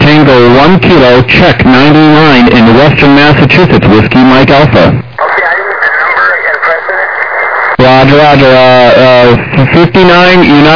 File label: USB 21MHz